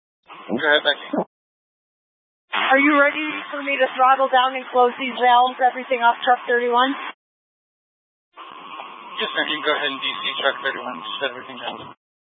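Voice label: Incident Tactical 2, Scanner audio recording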